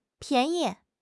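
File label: "pian2 yi5", Chinese; HSK; HSK2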